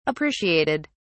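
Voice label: "appreciated", British English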